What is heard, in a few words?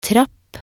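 "trapp", Norwegian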